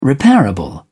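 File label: "repairable", English, British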